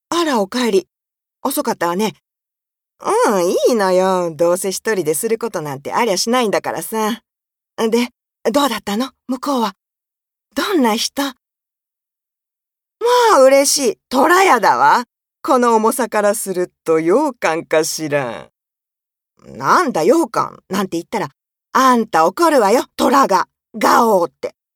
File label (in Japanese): 身内と会話; 母娘の会話